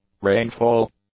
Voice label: "rainfall", English; nouns

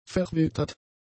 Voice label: "verwittert", German; adjectives

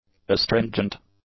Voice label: "astringent", English; adjectives

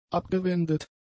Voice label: "abgewendet", German; adjectives

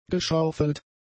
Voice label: "geschaufelt", German; adjectives

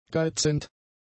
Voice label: "geizend", German; adjectives

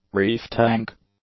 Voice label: "reef tank", English; nouns

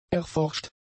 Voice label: "erforscht", German; adjectives

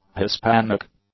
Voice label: "Hispanic", english; adjectives

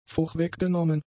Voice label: "vorweggenommen", German; adjectives

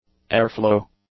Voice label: "airflow", English; nouns

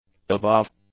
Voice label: "above", english; adjectives

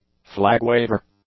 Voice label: "flag-waver", English; nouns

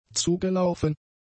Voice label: "zugelaufen", German; adjectives

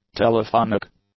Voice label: "telephonic", English; adjectives

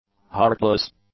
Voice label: "heartless", English; adjectives

